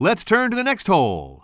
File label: commentator